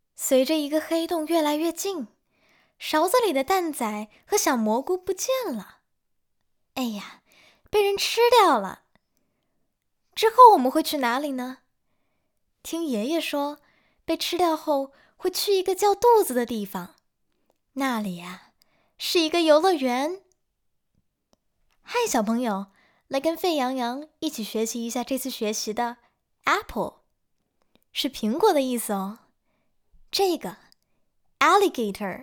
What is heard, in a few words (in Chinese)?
成人女声中英双语